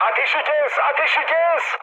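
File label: Turkish player friendly fire, mood med, speech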